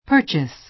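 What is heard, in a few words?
"purchase", American English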